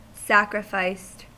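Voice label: "sacrificed", American English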